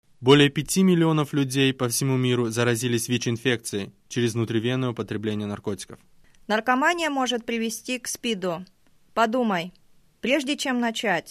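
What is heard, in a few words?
spot russian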